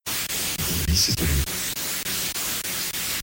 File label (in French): donne lui c'est à lui ralenti